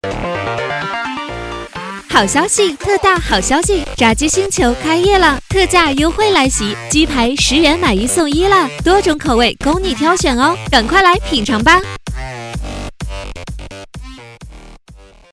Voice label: 女 促销青春 炸鸡+音乐

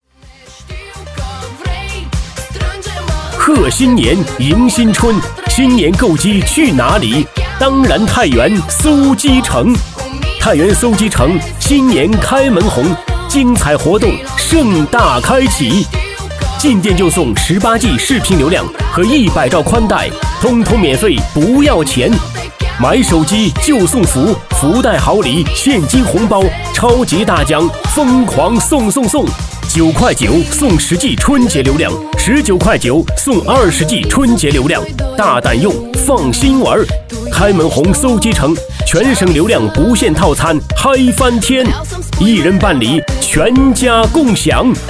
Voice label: 男 促销广告; 太原搜机城

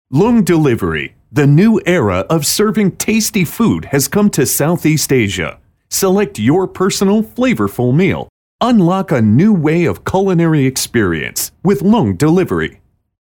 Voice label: 外籍男 外卖广告; 龙外卖广告

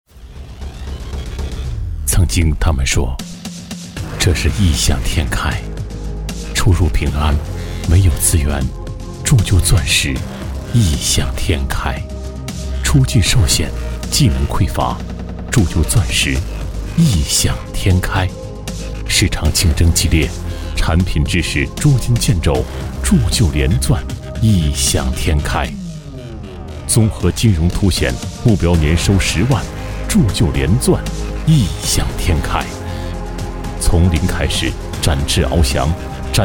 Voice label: 男 高端品质感低沉; 百钻

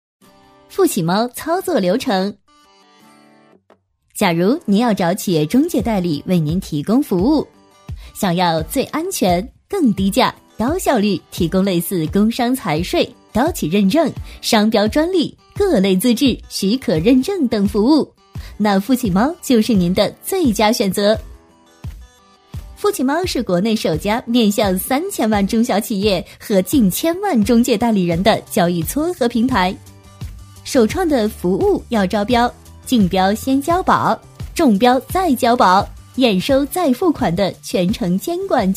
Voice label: 女 操作流程

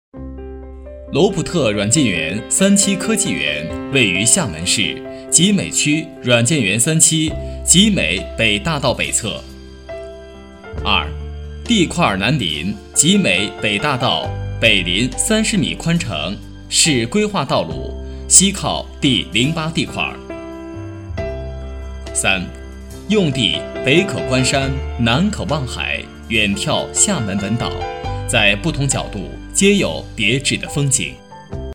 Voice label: 男 软件园工程（正式）; 实惠年轻

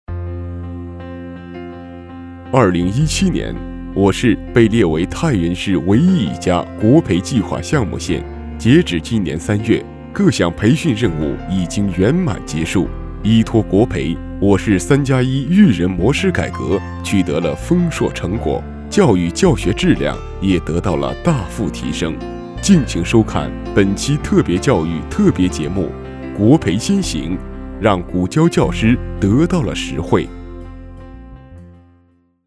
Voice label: 男85 【专题】城市叙述解说混音

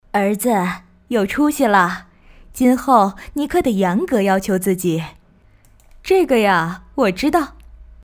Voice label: 女 模仿妈妈

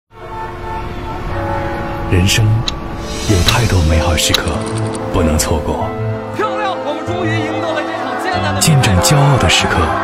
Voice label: 男; 滴滴快车春节巨献

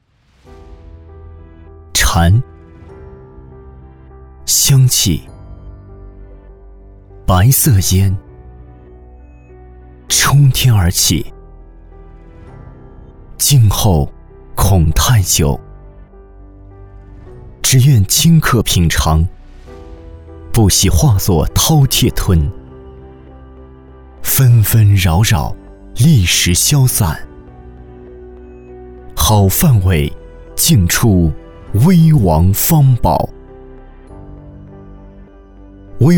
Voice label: 男 方煲（品质感）; 威王

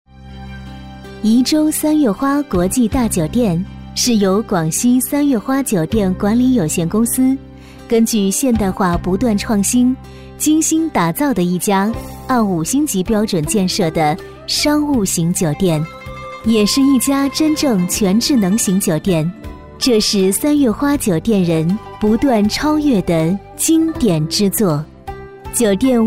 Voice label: 女 亲和优雅; 三月花酒店

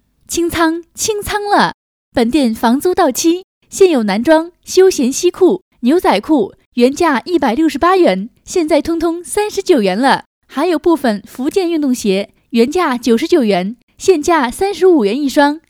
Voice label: 女 清仓-服装大清仓

